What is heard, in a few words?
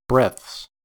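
"breaths", US English; pronunciations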